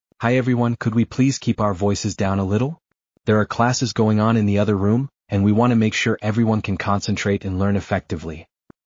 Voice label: be quiet in the classroom, male